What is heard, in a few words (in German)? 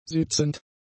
"sitzend", german; adjectives